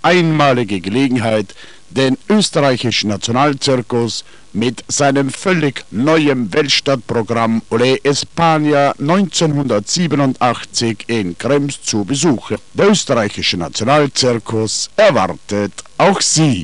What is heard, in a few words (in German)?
zirkusansage, Lautsprecherwagen für Zirkus; volkswagen kafer